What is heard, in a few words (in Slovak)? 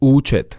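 "ucet", Slovak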